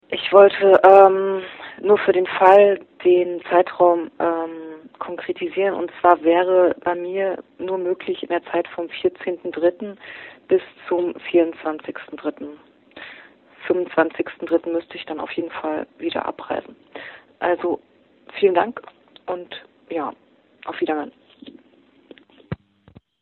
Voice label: Voicemail